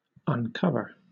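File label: "uncover", British English; pronunciations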